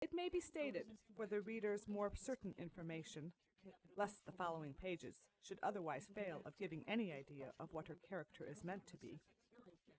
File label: female; SASSEC07